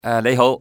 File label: "good day", male; XBOX; civ